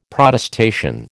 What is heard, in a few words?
"Protestation", American